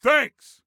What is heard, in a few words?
Thanks, Soldier Male; Soldier Male 03